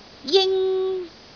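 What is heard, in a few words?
"jing1", Cantonese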